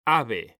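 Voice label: "ave", Spanish, male; mekanta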